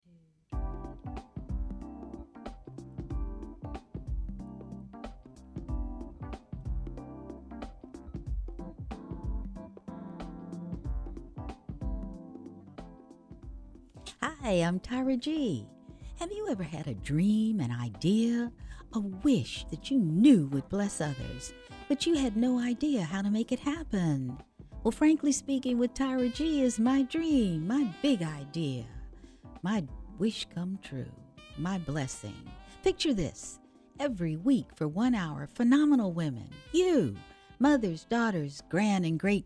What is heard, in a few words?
Radio Show Promo; Frankly Speaking